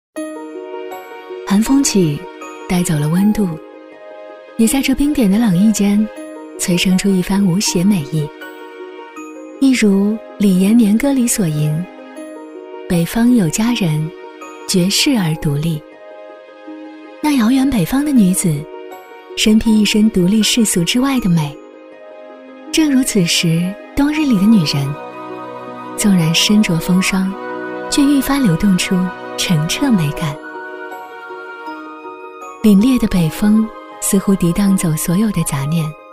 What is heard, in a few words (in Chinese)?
女, 美妆宣传片, 温暖 甜美; 磁性甜美; 年轻时尚; 桃花姬